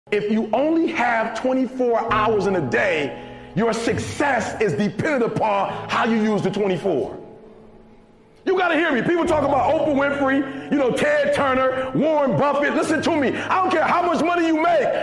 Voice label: Motivational Speech